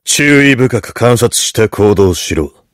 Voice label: heavy good job

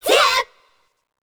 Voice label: slash voice; chiabihamaru; cv project; invading executioner; zerosiki; slender

